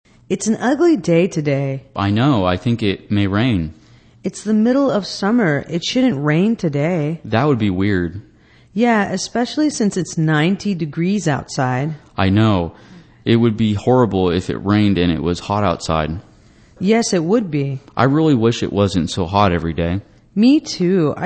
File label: english conversation weather